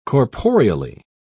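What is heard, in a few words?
"corporeally", British English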